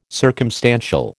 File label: "circumstantial", American